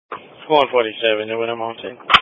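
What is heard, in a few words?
Police Patrol (Dispatch)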